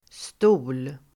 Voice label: "stol", Swedish

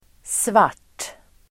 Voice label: "svart", Swedish

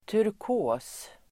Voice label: "turkos", Swedish

